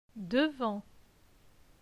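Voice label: "Devant", French; phonetique; les consonnes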